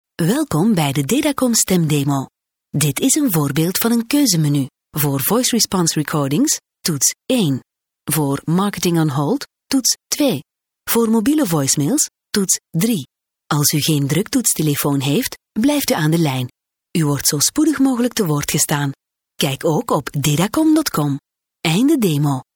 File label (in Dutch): Voice over Vlaams vrouw